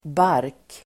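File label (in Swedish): "bark", Swedish, Uttal: [bar:k]; Böjningar: barken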